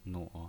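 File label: "nuo" third tone, pinyin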